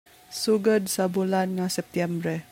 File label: Cebuano